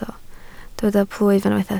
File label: "plwyf", Welsh